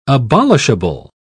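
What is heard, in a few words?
"abolishable", British English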